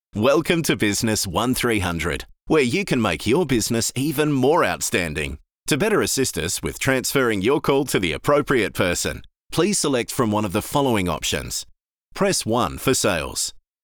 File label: FRIENDLY; BUSCO